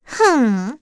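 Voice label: Vox Deny, English; Cecilia; kingsraid; voices heroes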